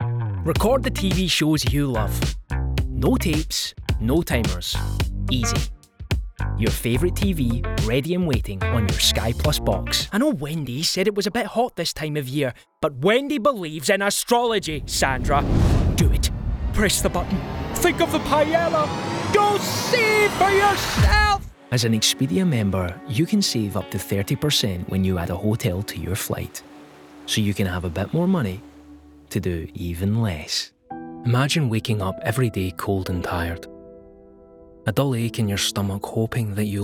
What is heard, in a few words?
commercial reel, voiceover; Commercial Showreel